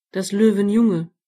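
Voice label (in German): "lion cub", German; gcompris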